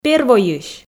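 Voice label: "первойысь", Komi, female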